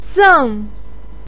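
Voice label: "seng", Mandarin Chinese, fourth tone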